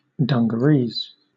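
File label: "dungarees", British English; pronunciation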